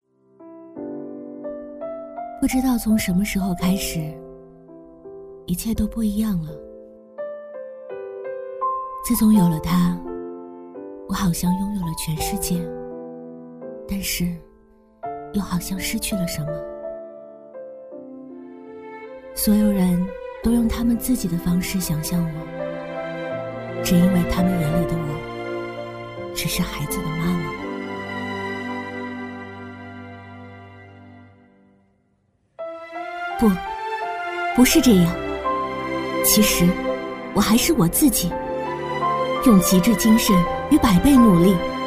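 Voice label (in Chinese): 女-微电影（淡淡的忧伤）; 小蓝瓶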